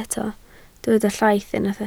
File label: "llaith", Welsh